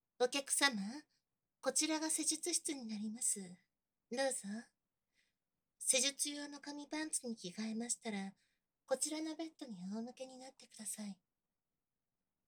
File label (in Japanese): マッサージと全身リップ手コキ, ASMR